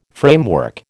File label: "framework", American